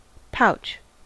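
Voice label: "pouch", US English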